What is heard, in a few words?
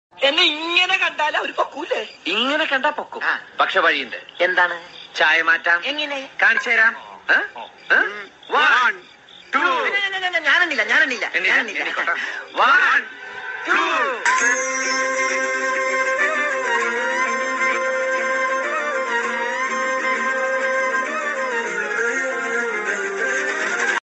trending comedy dialogue tamil voice